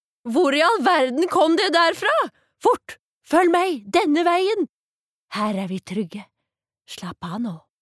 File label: no dub, norwegian, female